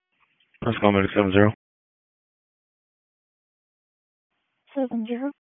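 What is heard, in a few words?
EMS Dispatch, p25; wake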